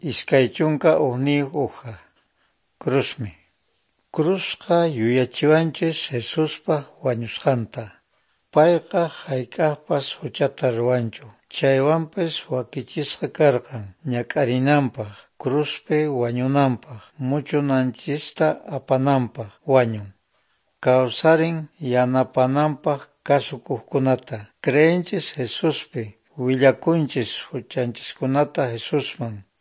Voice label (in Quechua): good news quechua norte de bolivia apolo